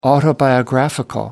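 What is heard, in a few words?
"autobiographical", British English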